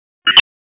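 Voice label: EMS Dispatch, p25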